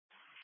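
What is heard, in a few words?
Police Patrol (Dispatch)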